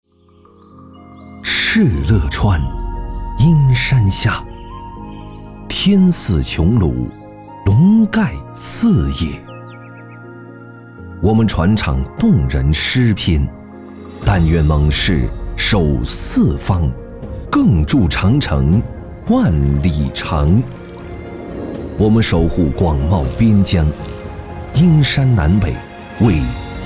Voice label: 宣传

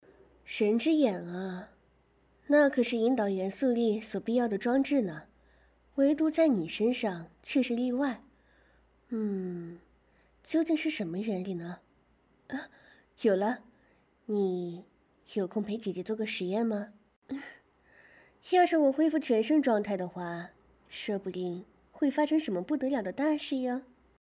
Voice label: 成熟知性

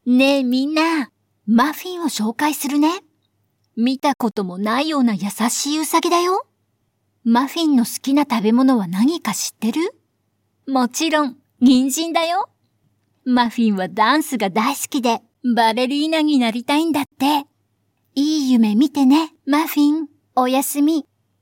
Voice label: 外籍日语可爱童音

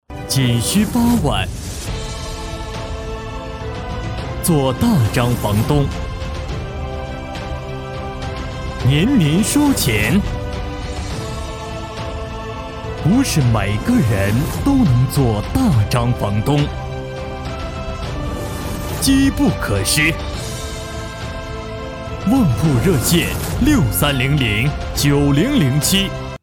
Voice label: 地产 宣传片

